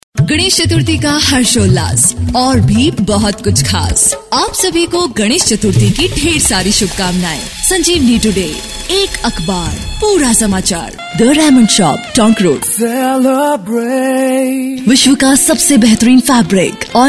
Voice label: RADIO ADS